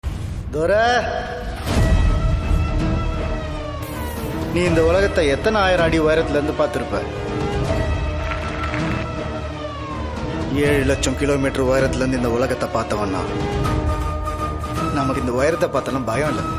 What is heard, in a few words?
Trailer Dialogue Ringtone; Bhoomi; Jayam Ravi